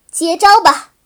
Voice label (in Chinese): 使用技能, BattleCry; 薇薇安